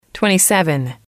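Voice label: "twenty seven", English, female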